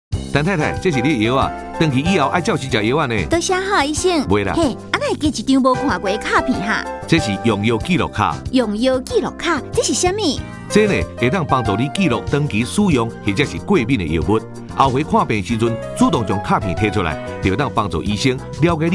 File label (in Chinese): 用藥紀錄卡 台語版